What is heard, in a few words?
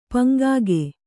"ಪಂಗಿಗ", Kannada